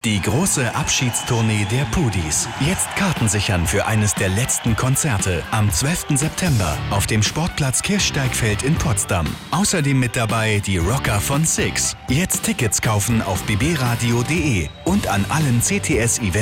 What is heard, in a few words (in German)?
Spot Reminder